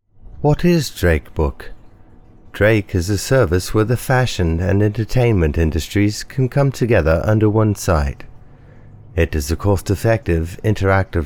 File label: British